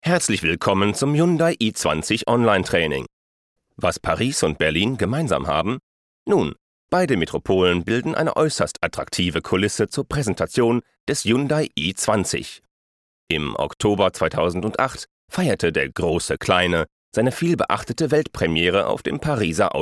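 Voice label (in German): elearning, deutsch männer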